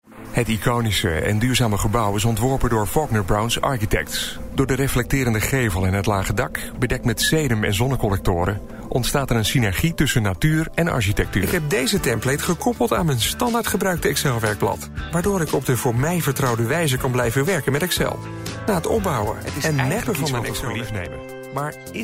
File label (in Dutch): Zakelijk